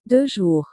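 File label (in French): deux jours, French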